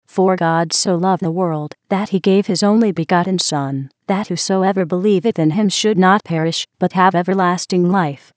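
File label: special audio message english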